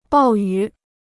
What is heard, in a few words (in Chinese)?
"暴雨", Chinese